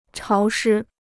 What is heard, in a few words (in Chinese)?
"潮湿", Chinese